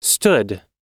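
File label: "stood", American English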